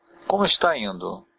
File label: how are you, portuguese br